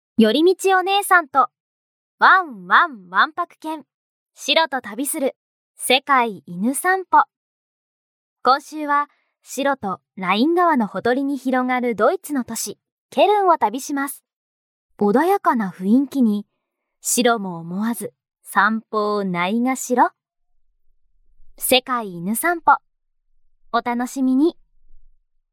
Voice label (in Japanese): 旅番組風ナレーション